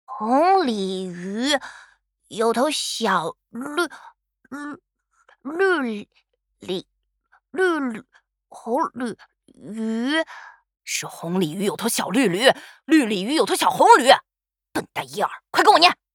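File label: voice Chinese idle; YaleAndOliver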